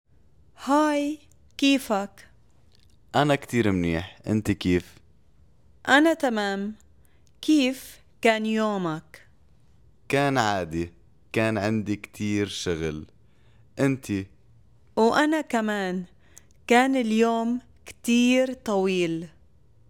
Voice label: Dialogue spoken Arabic